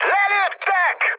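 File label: Hungarian aircraft destroyed mood high, speech; War Thunder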